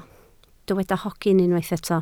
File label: "hogyn", Welsh